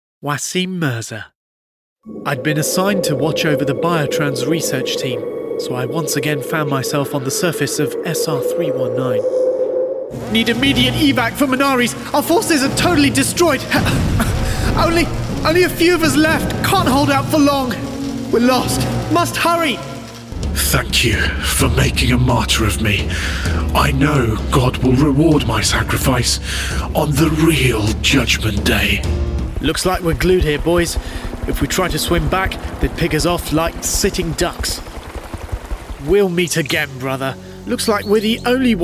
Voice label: Gaming Voicereel